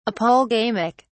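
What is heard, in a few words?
"apolegamic", British English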